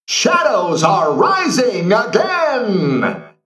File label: Mech Emote Attack